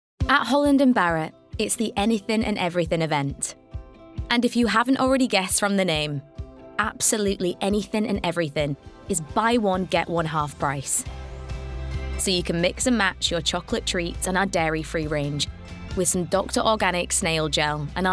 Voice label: female english northern accent commercial compilation; english southern accents